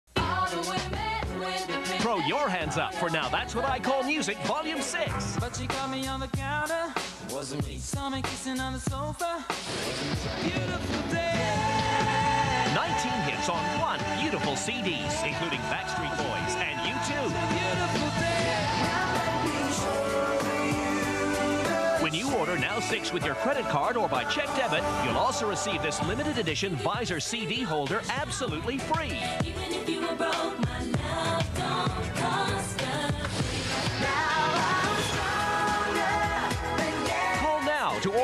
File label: official US commercial; now thats what i call music volume 6